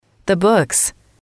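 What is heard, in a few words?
"the books", English, female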